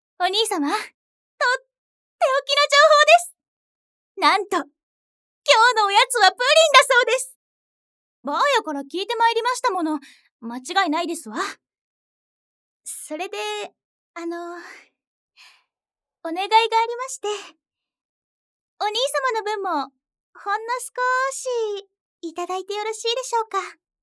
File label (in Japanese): 妹